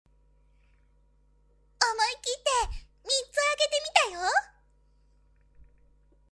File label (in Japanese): onna